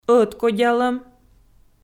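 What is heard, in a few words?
"ӧткодялӧм" Komi, female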